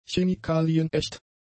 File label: "chemikalienecht", German; adjectives